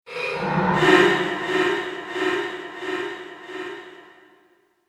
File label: dark vo